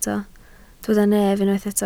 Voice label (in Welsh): "nef", Welsh